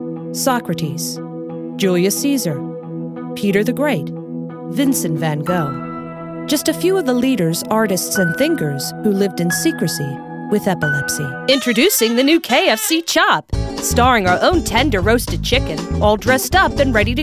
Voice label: Commercial Demo